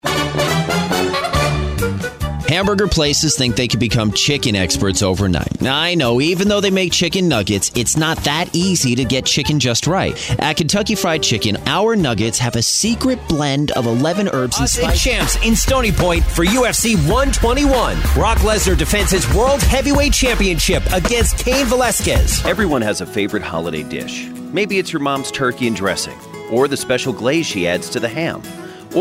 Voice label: Commercial

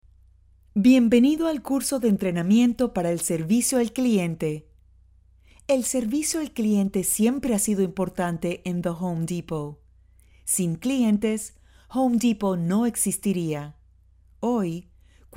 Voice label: Spanish

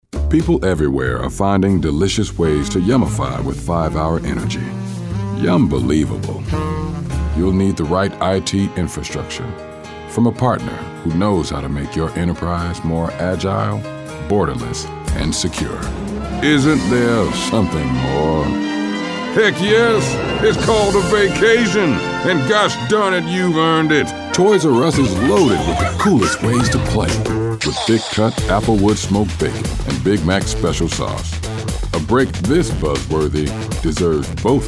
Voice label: Commercial

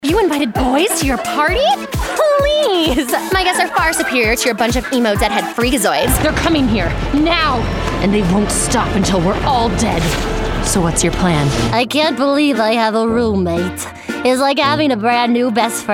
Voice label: Animation

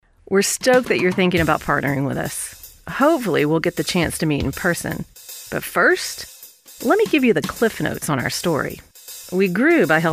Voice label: Narration

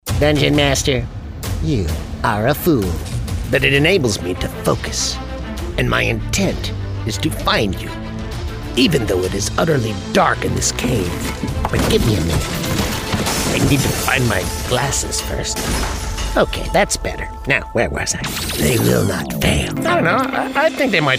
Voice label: Animation

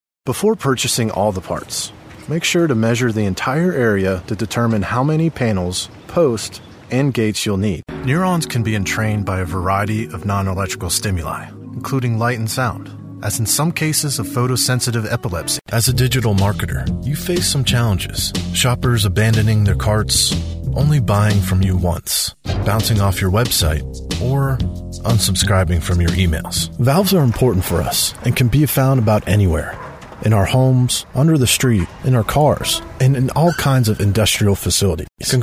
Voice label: Narration

